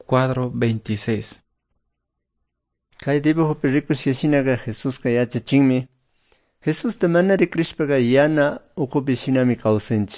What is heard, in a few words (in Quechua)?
good news quichua cayambe